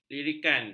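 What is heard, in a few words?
"lirikan", Malay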